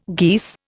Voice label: "geese", Indian